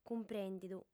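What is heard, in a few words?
"cumprèndidu", Sardinian, female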